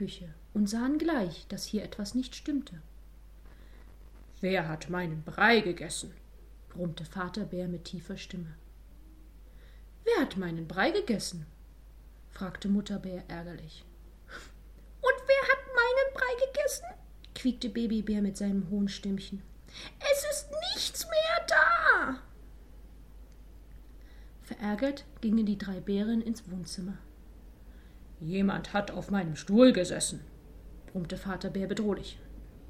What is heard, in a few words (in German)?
Kopfstimme finden Eine Geschichte Vorlesen